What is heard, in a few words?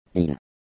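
"Lille", French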